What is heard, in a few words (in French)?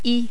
"i", French